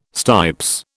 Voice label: "stipes", British